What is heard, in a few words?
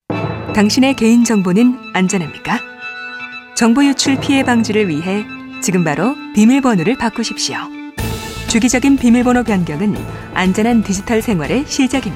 radio